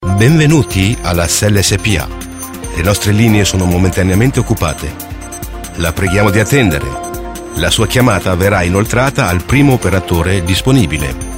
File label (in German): Italien M